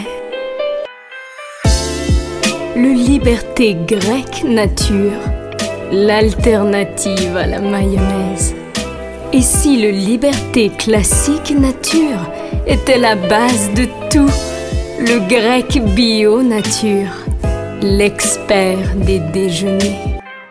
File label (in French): Pub Yogourt